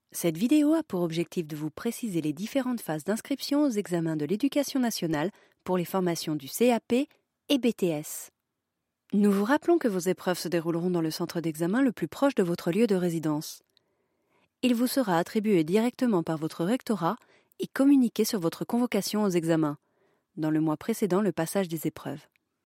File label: ELearning